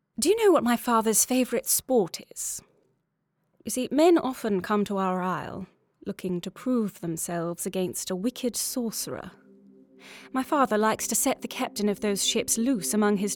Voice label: RP (Dramatic)